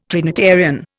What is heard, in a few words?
"Trinitarian", Indian